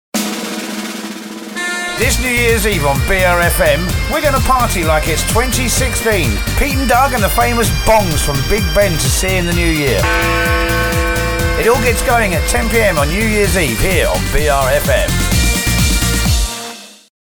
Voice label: New Years Eve Promo; BRFM